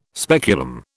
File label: "speculum", British